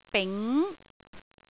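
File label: "bing2", Cantonese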